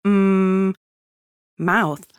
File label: babys sound audio MOUTH